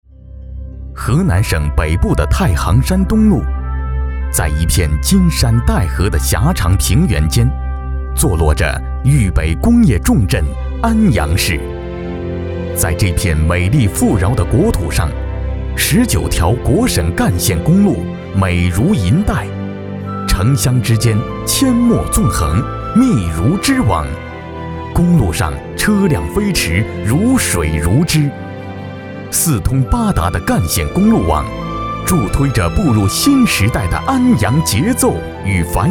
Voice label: 男 专题 公路; 安阳公路

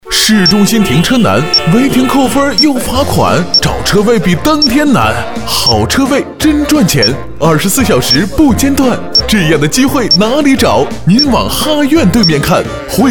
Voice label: 男 广告; 房产车位广告

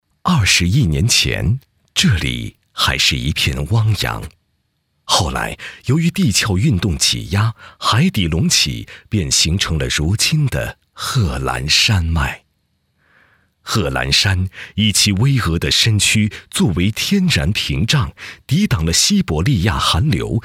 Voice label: 男 纪录片; 宁夏贺兰山岩画遗址公园

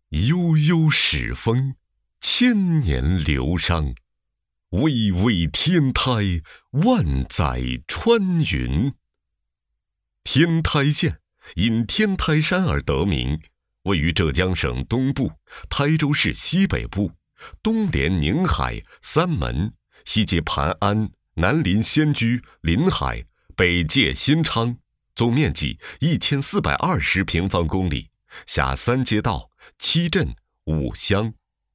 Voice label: 男 专题 宣传片; 天台县宣传片

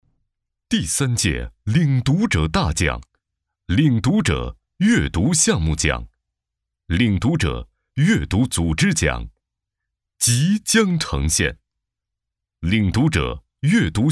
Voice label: 男, 片花; 领读者大奖

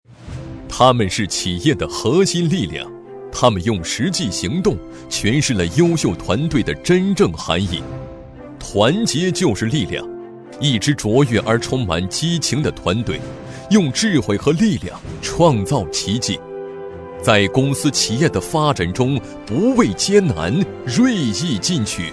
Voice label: 男 颁奖 公司颁奖

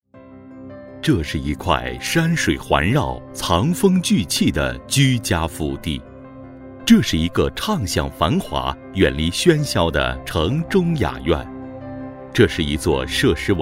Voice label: 男 专题 宣传片

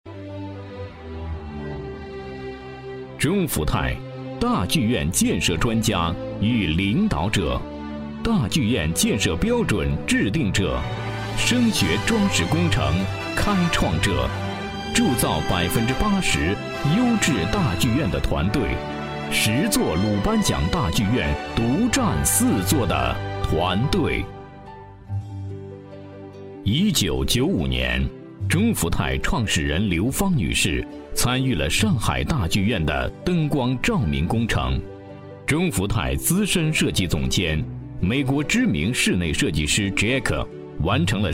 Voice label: 男 专题 公司

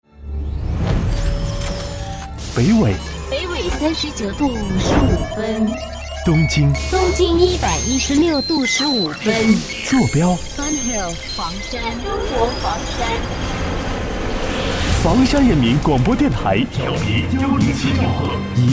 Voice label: 男 片花 人民广播电台; 房山人民广播电台时空版

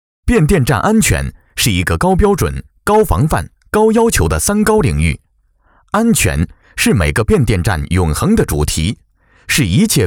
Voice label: 男 课件 变电站安全汇报

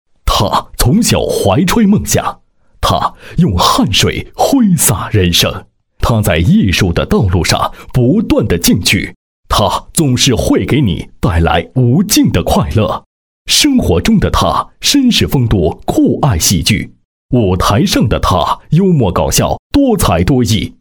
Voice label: 男, 专题, 人物介绍

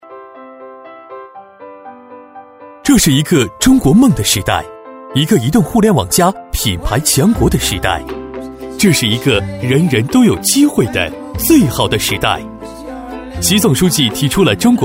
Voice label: 男 专题 品牌宣传（亲切轻松）; 君腾

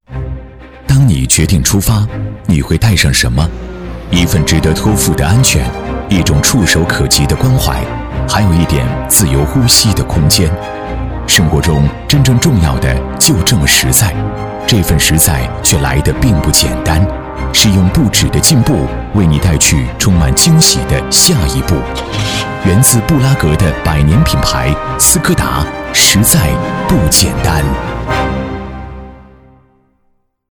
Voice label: 男 广告 独白; 斯柯达

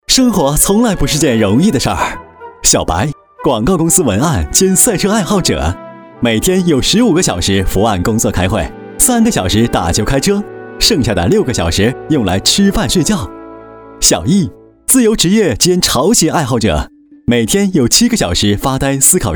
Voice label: 男; 飞碟说; 大阳巧客

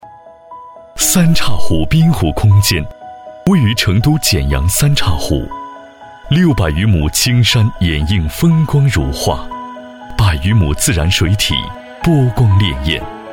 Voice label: 男 专题 年轻温情; 三岔湖

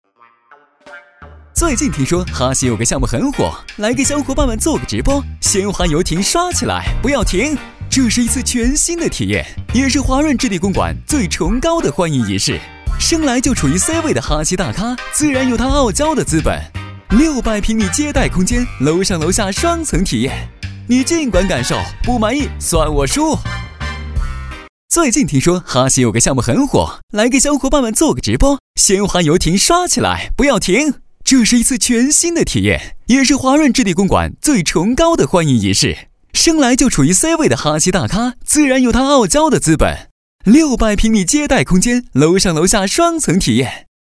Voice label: 男; 飞碟说; 华润房产

